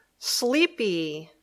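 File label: "sleepy", English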